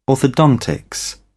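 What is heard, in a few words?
"orthodontics", British English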